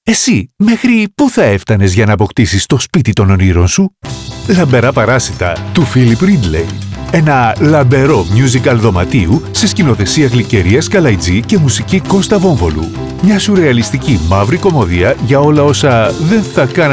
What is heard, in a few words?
Radio Spot; ΛΑΜΠΕΡΑ ΠΑΡΑΣΙΤΑ; philip ridley